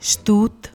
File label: "shtoot", Punjabi